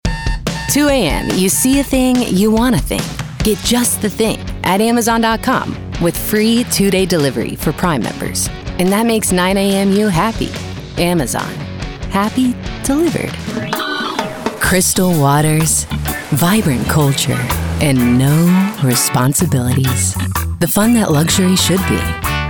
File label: female voiceover commercial